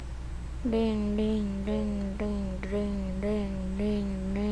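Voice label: "ring", spoken word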